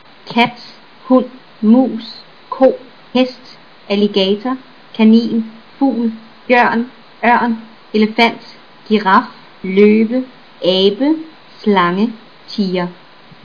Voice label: danish; animals